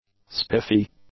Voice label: "spiffy", english; adjectives